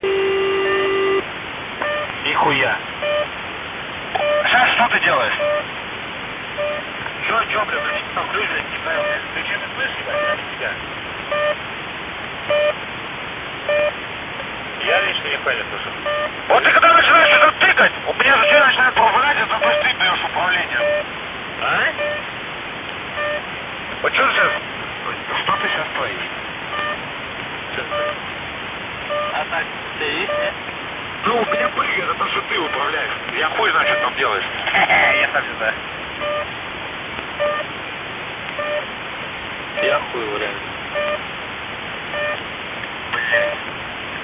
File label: usb chatter